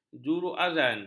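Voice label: "juruazan", Malay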